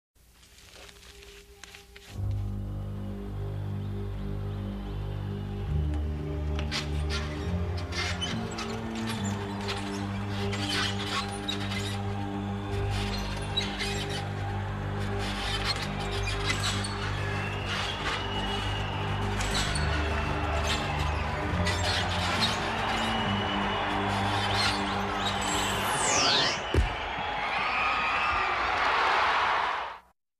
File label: Commercial; Nickelodeon Time Capsule; clarissa